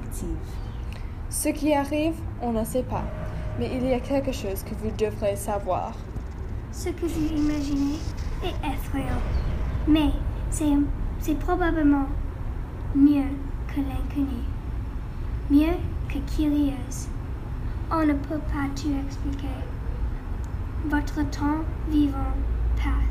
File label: Podcast Intro